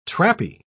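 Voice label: "trappy", British English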